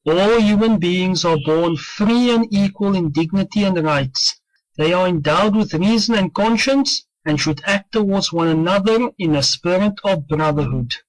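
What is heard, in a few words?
English South Africa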